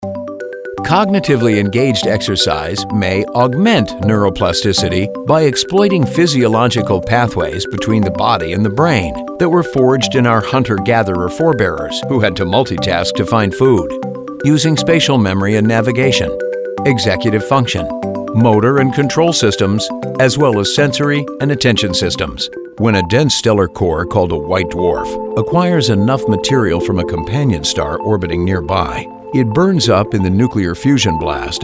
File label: men elearning